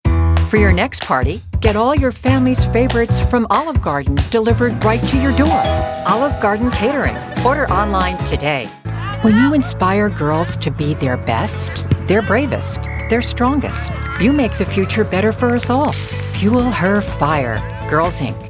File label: Commercial, Professional Female Voice Over